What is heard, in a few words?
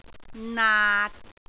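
"naat6", Cantonese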